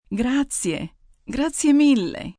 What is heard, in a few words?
Thanks A Lot, Italian, female; Etiquette, female, foreign,Italian